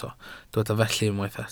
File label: "felly", Welsh